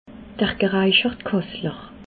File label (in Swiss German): "geraichertkassler", Alsace